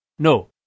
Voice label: "no", Balochi